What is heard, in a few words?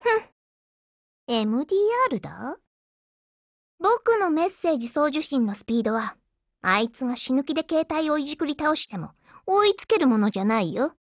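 DIALOGUE, Japanese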